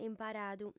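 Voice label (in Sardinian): "imparadu", Sardinian, female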